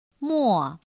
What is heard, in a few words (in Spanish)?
"mò", Chino